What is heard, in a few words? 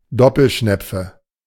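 "Doppelschnepfe", German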